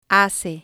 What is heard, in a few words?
"hace", spanish